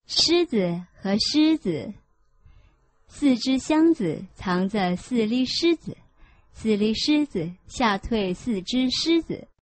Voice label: chinese tongue twister